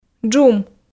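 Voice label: "джум", Komi, female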